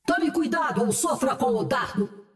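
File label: Portuguese; 奥丽莎（守望先锋2）; 终极技能; 葡萄牙语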